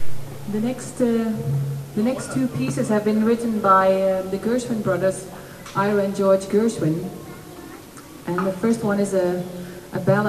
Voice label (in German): Ansage